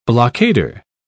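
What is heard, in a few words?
"blockader", British English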